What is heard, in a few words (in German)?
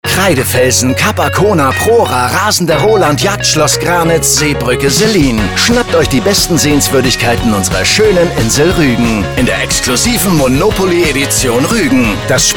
Radio Werbung; OSW Monopoly Cityedition Ruegen